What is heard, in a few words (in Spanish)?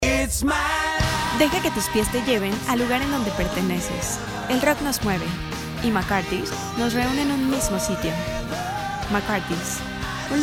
Spot Publicitario; McCarthy´s Irish Pub